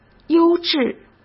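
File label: "优质", Mandarin Chinese; chinese flashcards; hsk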